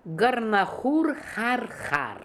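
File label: "Gornahoor Harharkh", pronunciations; Fourth Way; Beelzebub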